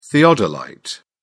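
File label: "theodolite", British English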